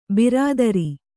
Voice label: "ಬಿರಾದರ", Kannada